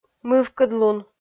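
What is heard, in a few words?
"мывкыдлун", Komi, female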